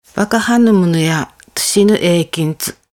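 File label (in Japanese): Onna, しまくとぅば; ワカハヌ ムンヤ トゥシヌ 金持エーキンツ; 恩納の言葉; 黄金言葉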